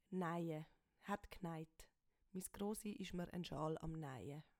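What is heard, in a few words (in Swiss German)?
"naie", Swiss German; Wortschatz Kapitel 1; Kapitel 1 Hauptwortschatz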